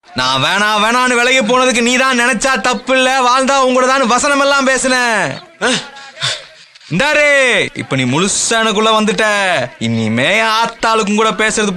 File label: tamil dialogue ringtone